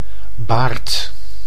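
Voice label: "baard", Dutch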